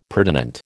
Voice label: "pertinent", American